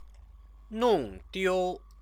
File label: "nongdiu", Chinese